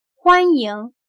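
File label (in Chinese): "欢迎", Chinese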